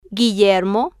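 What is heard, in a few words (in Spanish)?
"guillermo", Spanish, female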